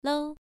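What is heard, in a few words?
"lou", Mandarin Chinese syllable, first tone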